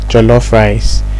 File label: "jollof rice", Pcm ng